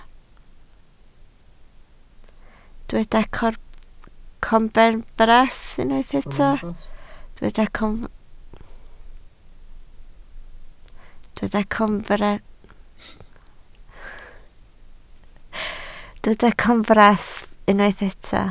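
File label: "corbenfras" Welsh, spoken word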